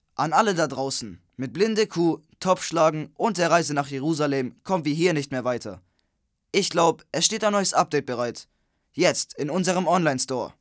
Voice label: Jungen

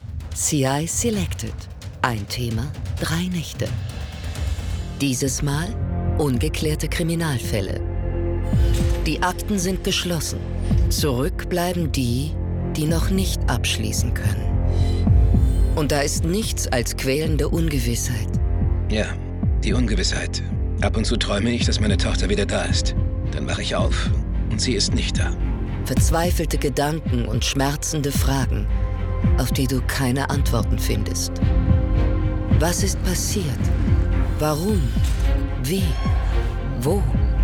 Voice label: Trailer, frauen; ungeklärte kriminalfälle

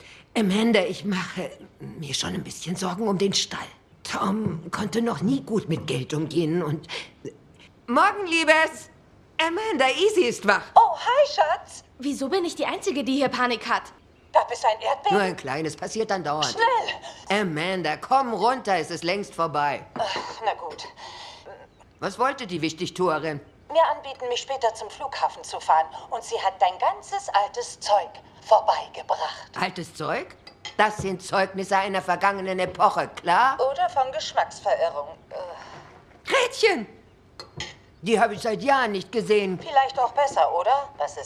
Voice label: Synchron, frauen; sprecher; Mystic

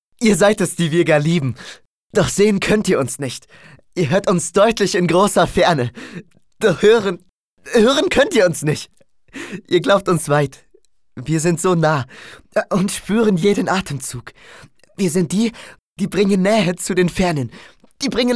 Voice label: froehlich, maenner

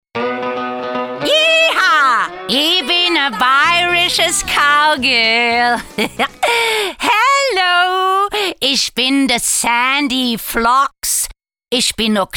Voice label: Akzent amerikanisch, frauen; sprecher; BUGA

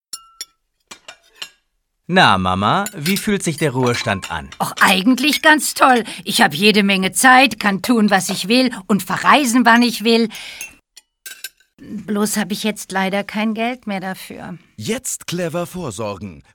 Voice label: Spots Szene; sprecher; frauen; Stadtsparkasse

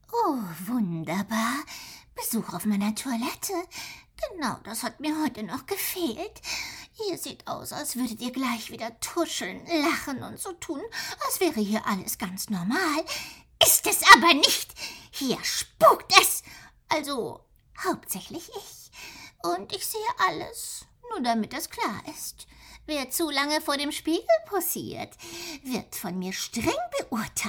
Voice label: Hörbuch, frauen; sprecher; Toilette im Schloss

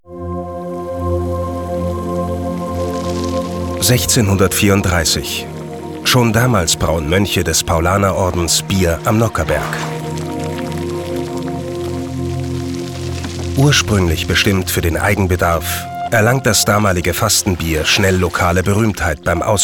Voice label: Imagefilm, maenner; Paulaner

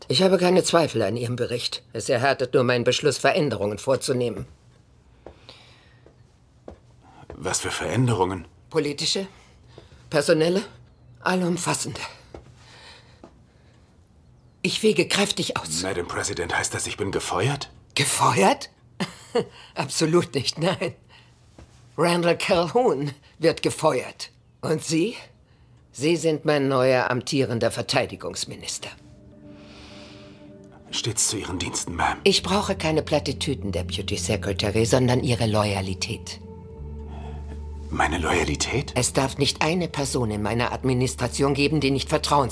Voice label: Synchron, frauen; Salvation

